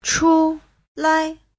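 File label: "chulai", Chinese; Giáo trình Hán ngữ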